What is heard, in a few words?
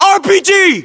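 British threat rpg; battlechatter; localized english; uk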